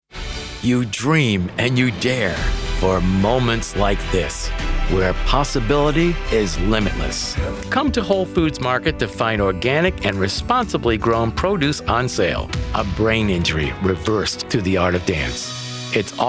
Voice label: Commercial